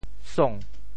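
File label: "song2", Teochew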